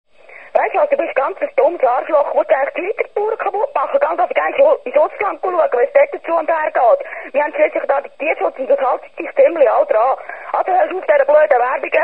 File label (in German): Radiospot; Reaktion auf den Radiospot